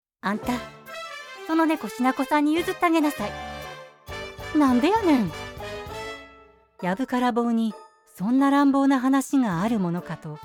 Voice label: Japanese audiobooks